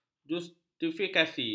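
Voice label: "justifikasi", Malay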